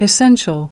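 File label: "Essential", English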